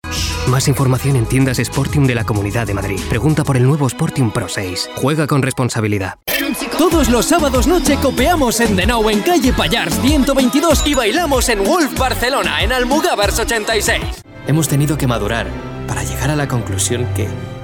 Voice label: male spanish radio